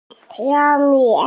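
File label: "xia1 mi5", Mandarin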